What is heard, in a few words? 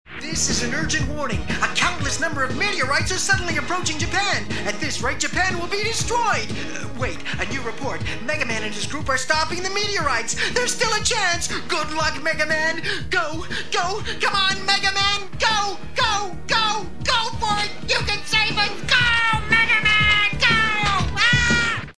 news report; megaman